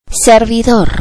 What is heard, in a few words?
"server", Spanish